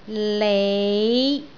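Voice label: "lei5", Cantonese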